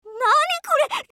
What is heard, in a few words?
skill jp; chasers; bellona